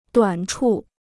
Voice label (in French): "短处", chinois